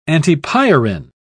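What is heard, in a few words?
"antipyrin", British English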